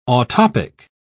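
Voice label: "autoptic", English, British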